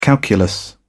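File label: "calculus", English, British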